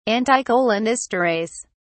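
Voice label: "anticholinesterase", English, British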